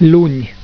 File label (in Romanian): "luni", romanian; tijden